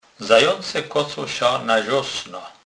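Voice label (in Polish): "kocec", Kurpie dialect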